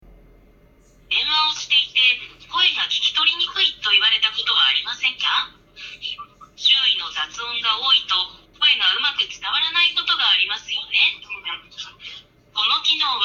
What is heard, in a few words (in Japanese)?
デフォルト通話アプリ自分の声だけON; Find X9